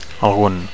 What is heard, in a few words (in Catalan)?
"algun", Catalan; some; any